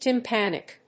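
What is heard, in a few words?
"tympanic", English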